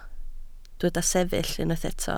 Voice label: "sefyll", Welsh

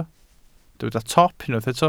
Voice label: "top", Welsh

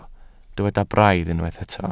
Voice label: "braidd", Welsh

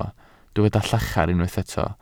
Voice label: "llachar", Welsh

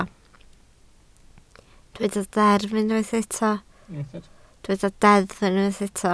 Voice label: "deddf", Welsh

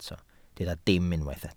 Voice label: "dim", Welsh

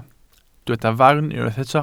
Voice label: "farn", welsh

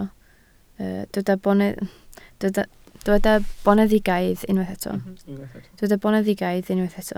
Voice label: "boneddigaidd", Welsh

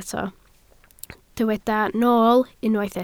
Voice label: "nool", Welsh

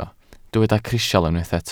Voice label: "crisial", welsh

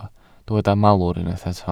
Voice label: "malwr", Welsh

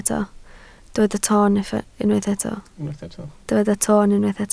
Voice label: "toon", Welsh

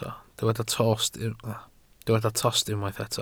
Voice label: "tost", Welsh

